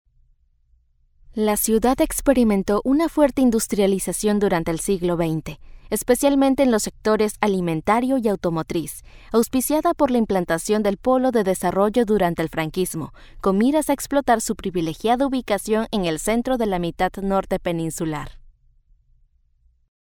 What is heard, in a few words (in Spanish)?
locutora latinoamericana latin american spanish voiceover; locutora español neutro